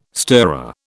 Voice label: "stirrer", British